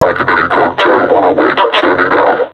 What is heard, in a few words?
taunt, vo combine suppressor; Half Life Alyx